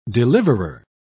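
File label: "deliverer", British English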